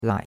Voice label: "lai3", chinois; Cours-de-Chinois